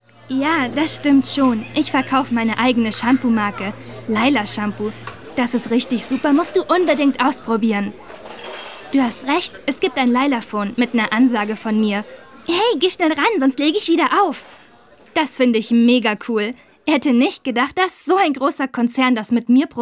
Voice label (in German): Interview, Voice Over, Frauen